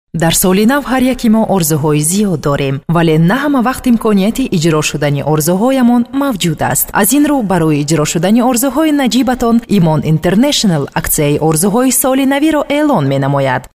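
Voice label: Tajikistan female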